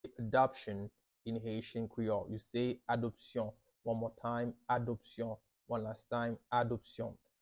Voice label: "Adopsyon" Haitian Creole pronunciation by a native Haitian Creole; How to say Adoption in Haitian Creole